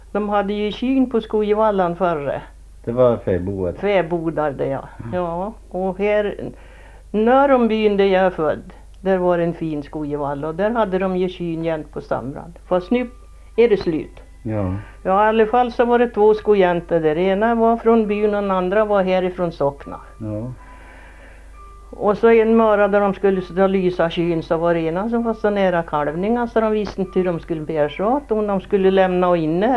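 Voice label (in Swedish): Ljusdals Hälsingland, dialekter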